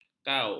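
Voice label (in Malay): "kau", Malay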